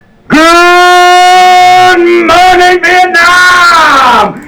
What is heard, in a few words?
bidirectional; epos; b20